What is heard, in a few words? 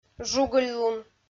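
"жугыльлун", Komi, female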